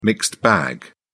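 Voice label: "mixed bag", British English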